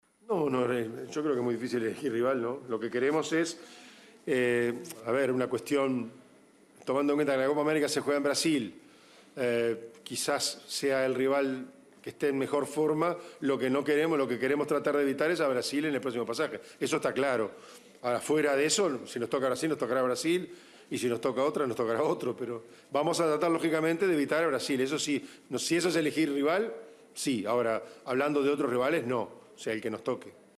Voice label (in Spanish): cuña